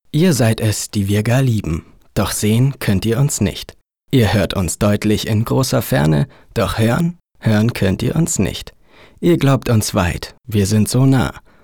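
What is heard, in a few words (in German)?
sachlich, Männer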